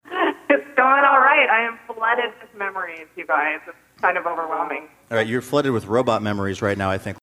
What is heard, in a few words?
glitch made voice sounding like robot